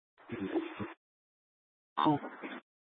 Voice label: Light Rail Ops, scanner; Light Rail Ops 1